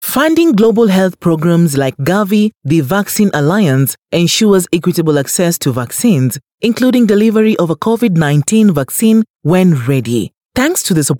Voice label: Swahili; Gavi Vaccine Alliance

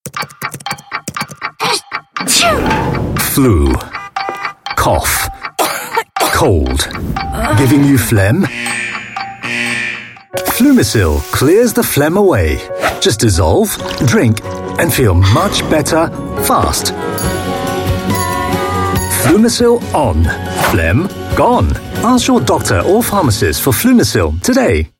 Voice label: UK English TVC, Male; Fluimucil Phlegm Gone

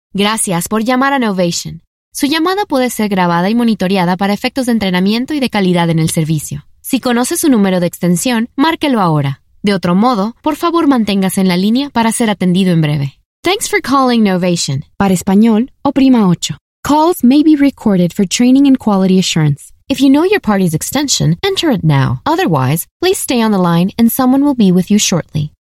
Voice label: US Spanish Telephone Messages

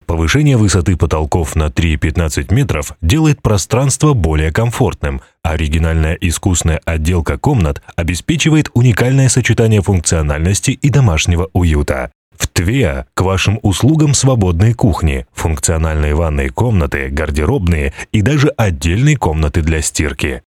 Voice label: Russian Male Real Estate

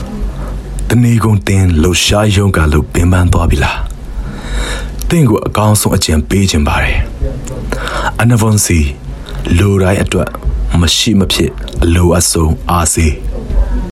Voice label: m Burmese TVC

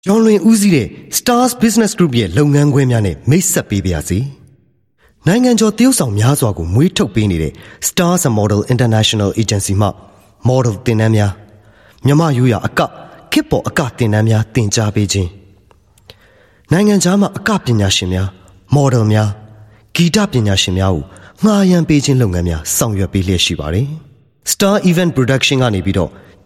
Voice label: Burmese Voice Sample Male

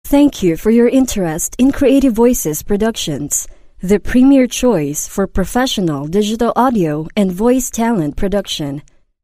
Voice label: Filipino English; CreativeVoices

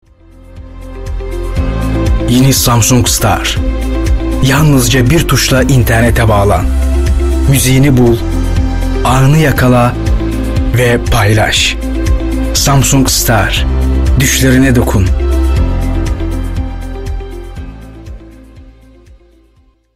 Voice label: Turkish, Male

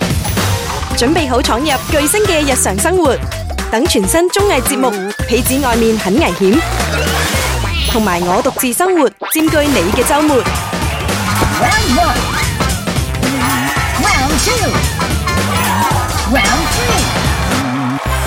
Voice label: Cantonese Channel VARIETY WEEKEND

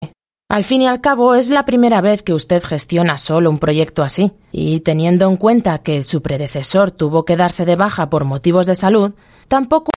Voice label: European Spanish Female Elearning

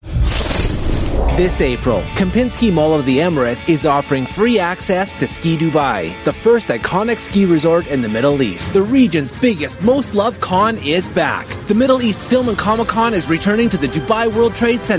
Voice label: US English commercial voiceover, male

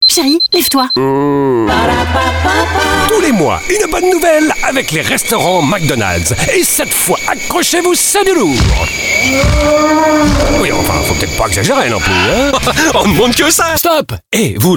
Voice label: French M

